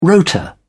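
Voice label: "rota", British English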